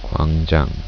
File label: "square", korean